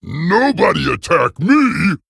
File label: Male Ogre Play